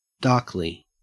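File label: "darkly", Australian English; pronunciations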